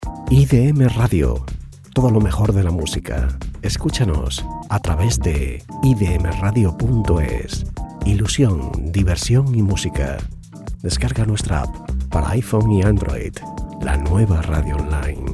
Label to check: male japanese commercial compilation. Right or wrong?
Wrong